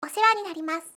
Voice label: "osewaninarimasu", voice, aisatsu

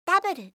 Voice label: "w", alphabet

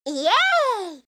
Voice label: "yeah", kakegoe

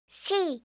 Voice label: "c", alphabet

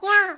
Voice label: "nyaa", doubutsu

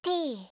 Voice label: "t", alphabet

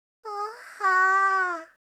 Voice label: "ohhaa", voice, aisatsu

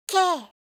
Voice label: "k", alphabet

